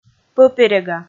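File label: "пӧперега", Komi, female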